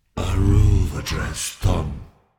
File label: incantation masculine demonic help